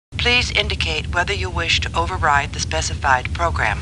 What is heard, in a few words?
Computer Voice; Star Trek; StarTrekLCAR